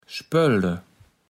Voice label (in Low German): "spölde", Platt